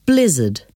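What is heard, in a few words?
"blizzard", English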